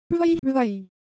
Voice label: Korean, Ideo Phones; Motion